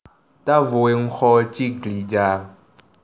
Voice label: example fongbe; dvoice

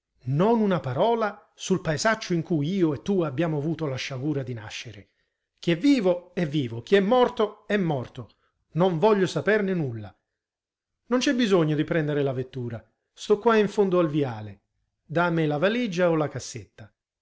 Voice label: italian

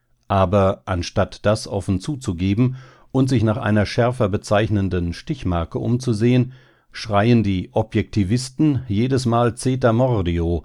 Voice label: german male

